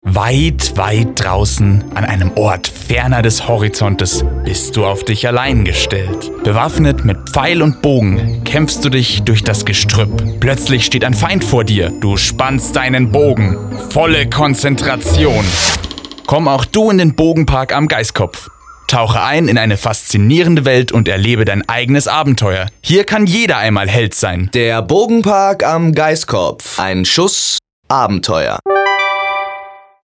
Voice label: Werbung; Irlbacher

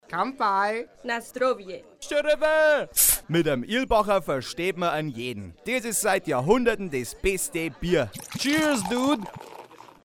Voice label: Werbung Reminder; Irlbacher

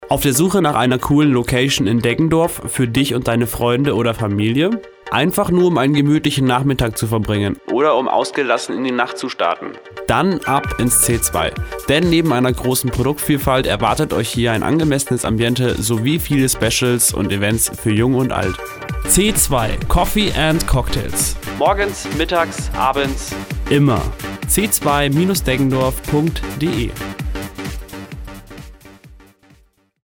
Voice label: Werbung

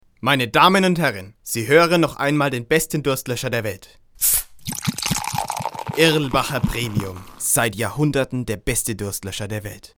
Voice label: Werbung Reminder; Irlbacher

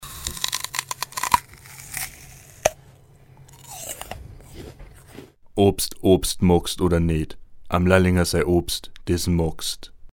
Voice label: Werbung Obst Reminder; Lallinger

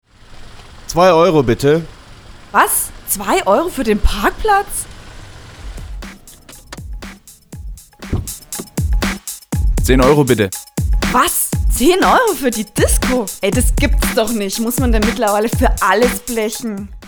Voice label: Werbung Sparkasse

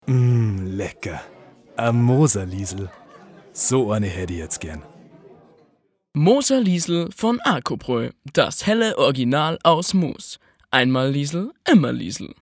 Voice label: Werbung Reminder; Arcobraeu

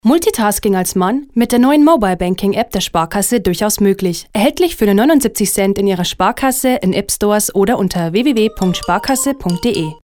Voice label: Werbung Reminder; Sparkasse

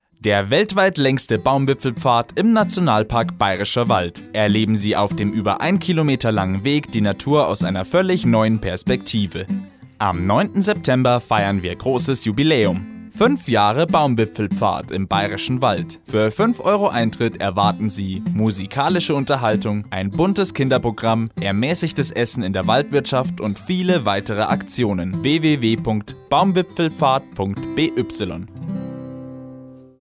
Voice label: Werbung Mit Jubilaeum; Erlebnis Akademie

